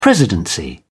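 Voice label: "presidency", British English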